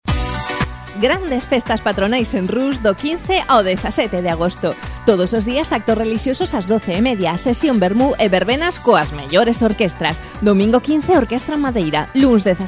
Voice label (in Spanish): LOCUCION GALLEGA